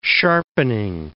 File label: "sharpening", English